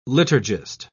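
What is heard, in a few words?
"liturgist", British English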